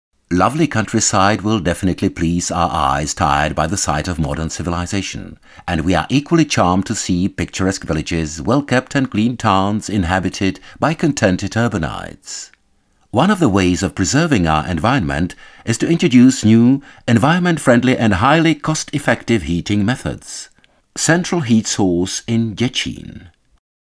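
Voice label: male English documentary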